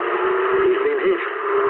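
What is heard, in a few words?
aircraft destroyed mood low, speech English; War Thunder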